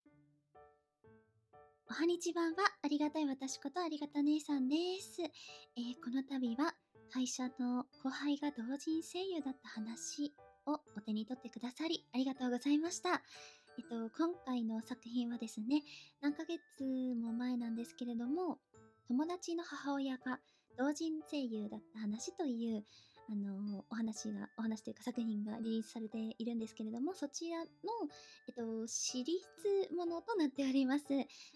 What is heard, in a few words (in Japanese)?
フリートーク, ASMR